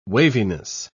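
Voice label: "waviness", British English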